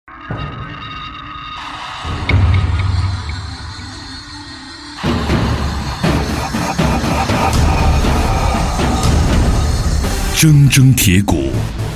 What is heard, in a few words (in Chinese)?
男 广告 演唱会宣传, 国语男声配音